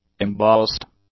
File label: "embossed", English; adjectives